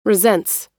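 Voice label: "resents", American English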